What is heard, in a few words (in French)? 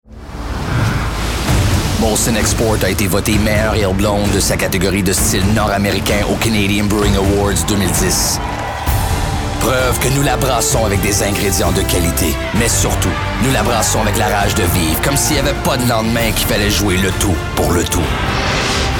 demo voix fr